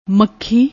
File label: "makhi", Punjabi